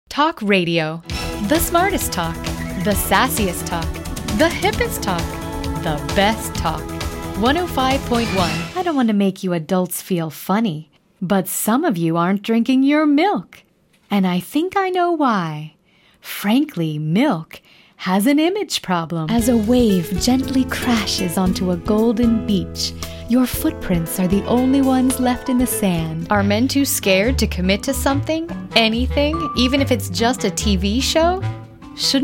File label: Price Spots